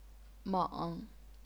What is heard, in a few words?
"meng3", chinese pinyin